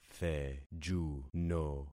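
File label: "thejuno", concatenated syllables; Affixing experiment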